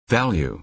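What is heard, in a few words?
"value", American English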